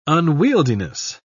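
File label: "unwieldiness", English, British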